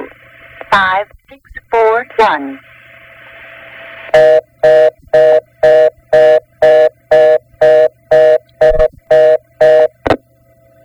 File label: Disconnected, Bell System; Jane Barbe